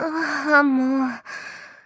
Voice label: tired